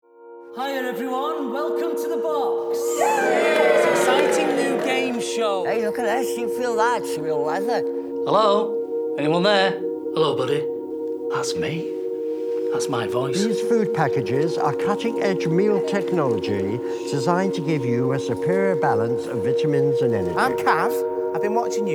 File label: Radio Trailer; THE BOX